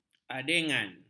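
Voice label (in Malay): "adegan", Malay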